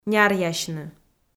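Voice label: "няръясьны", Komi, female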